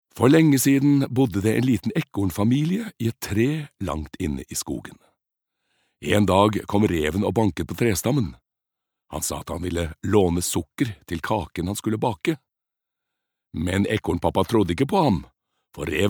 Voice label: no childrens book